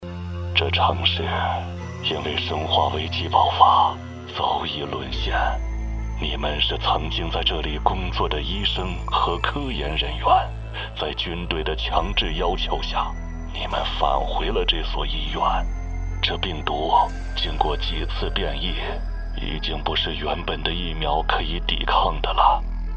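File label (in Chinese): 角色 男 中年 密室旁白 留声机留言; 角色-男27-中年-密室旁白-留声机留言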